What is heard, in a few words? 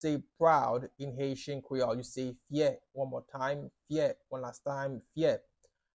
Proud in Haitian Creole Fye pronunciation by a Haitian Creole teacher